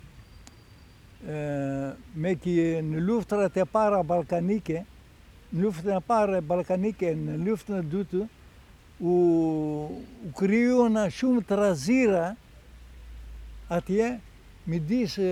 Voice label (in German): hoerprobe albanisch, Albanisch; Informationsseite zu den Albanisch-Sprachkursen bei SprachKult Jena